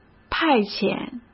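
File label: "派遣", Mandarin Chinese; hsk; chinese-flashcards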